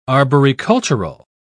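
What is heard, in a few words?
"arboricultural", British English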